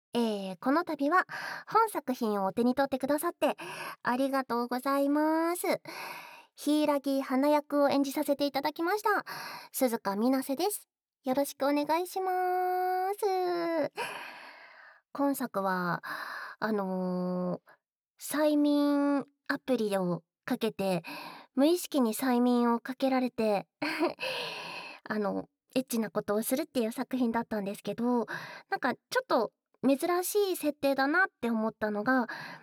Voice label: フリートーク

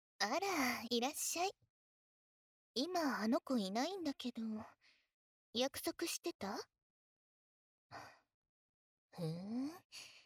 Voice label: 病み囁き×耳かき; SEなし; 『あなたはパパになるの…♪』女友達の母親の拘束求婚逆レイプ【ヤンデレ×耳かき】

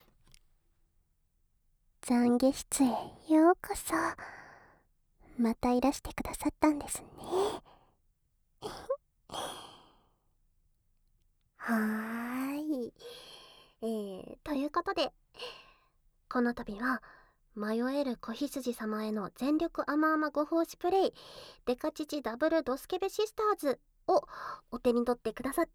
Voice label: フリートーク; 【KU100】迷える子羊様への全力あまあまご奉仕プレイ♪ デカ乳ダブルドスケベシスターズ!

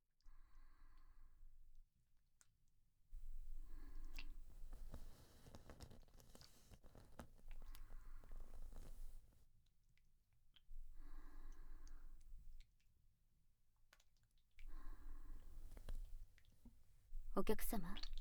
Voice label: 前立腺マッサージ, ASMR; BGMなし; ゾクッゾクッ!健全!ちんこマッサージ店; フォーリーサウンド

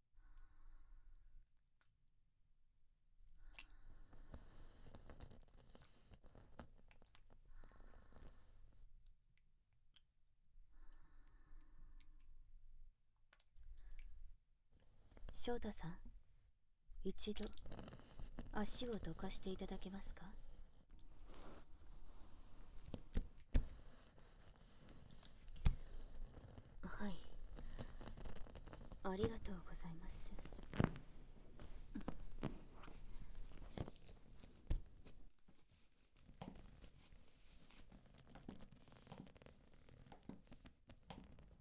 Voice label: 背面マッサージ, ASMR; BGMなし; 新人研修!ちんこマッサージ店(フォーリーサウンド)

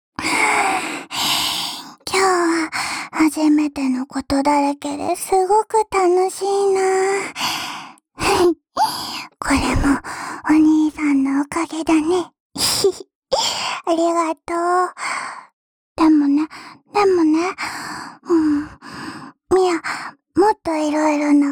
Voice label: ねぇ、もっとエッチなこと教えてぇー。耳元でおねだり囁き（両耳）

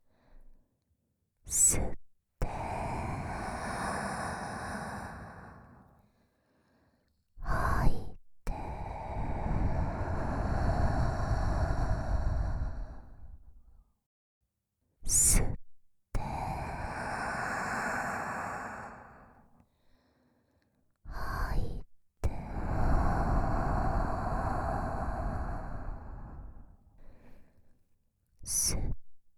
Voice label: 「吸って」「吐いて」＆吐息ループ; 触手吐息耳舐め呼吸ロングループ; みみサキュIX

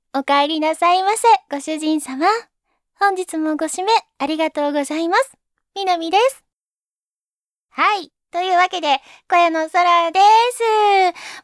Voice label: フリートーク; 甘々ボイスのむっちり巨乳若妻メイド美波

